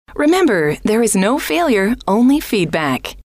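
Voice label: remember There Is No Failure, female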